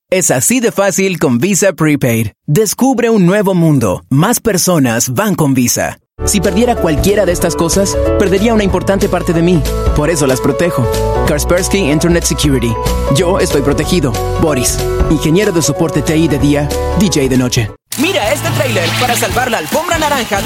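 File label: Latin American Spanish Commercial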